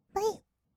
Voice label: "ぶい", kawaii voice; 1200 kawaii voice!!; 色々なワンショット

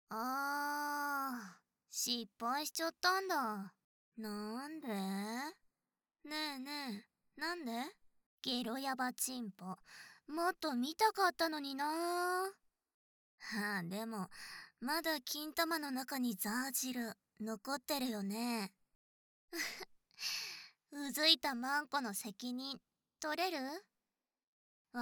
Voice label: 失敗ボイス

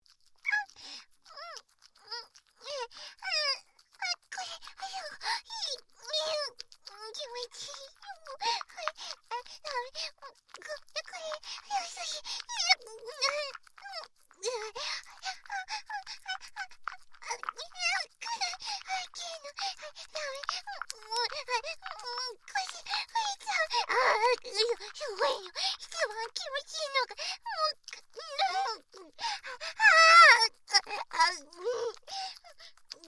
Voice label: オナニーボイス; ASMR

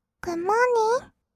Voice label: グッモーニン, kawaii voice; ASMR; 挨拶; 1200 kawaii voice!!

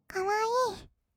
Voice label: かわいい, kawaii voice; ASMR; 色々なワンショット

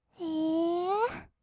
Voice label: えー, kawaii voice; ASMR; 色々なワンショット; 1200 kawaii voice!!

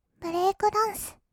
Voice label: ブレイクダンス, kawaii voice; 1200 kawaii voice!!; 音楽ジャンル

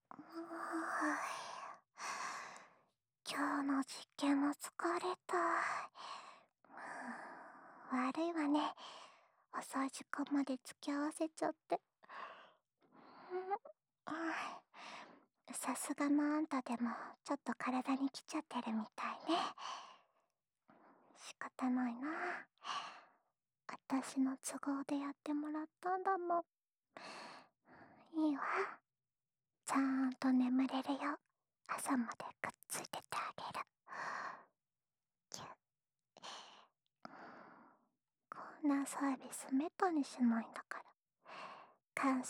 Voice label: 安眠用密着添い寝ループ（右耳）, ASMR

